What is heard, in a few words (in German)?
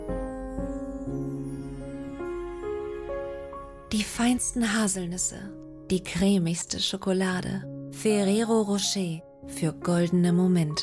Werbung Deutsch; Ferrero Rocher